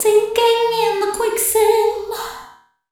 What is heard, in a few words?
VOICE FX, Drum Bass, 160; eJay